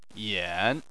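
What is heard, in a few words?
"yan", Chinese, third tone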